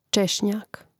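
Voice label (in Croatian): "češnjak", Hrvatski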